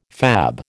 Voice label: "fab", American

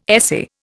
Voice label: "essay", Indian

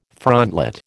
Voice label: "frontlet", American

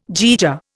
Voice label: "jaeger", Indian

